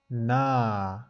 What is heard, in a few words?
na, 吴语; n l 很简单，它们就是英文里头的n和l，分别是“能”和“来”的声母